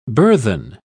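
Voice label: "burthen", British English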